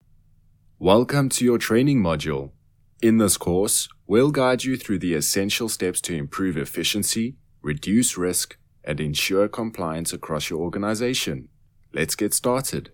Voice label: Corporate E Learning